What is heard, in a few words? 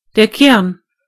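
pip, German voices words; gcompris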